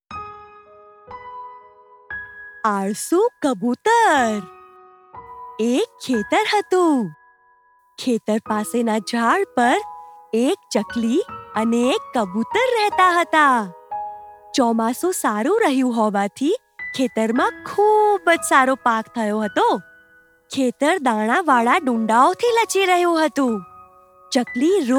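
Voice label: Story Telling Gujarati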